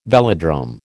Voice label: "velodrome", American

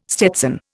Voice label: "stetson", Indian

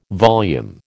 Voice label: "volume", American

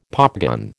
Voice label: "popgun", American

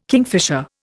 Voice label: "kingfisher", Indian

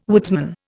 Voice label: "woodsman", Indian

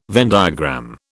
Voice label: "Venn diagram", British

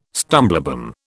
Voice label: "stumblebum", British

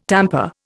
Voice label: "tamper", Indian

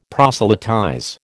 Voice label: "proselytize", American

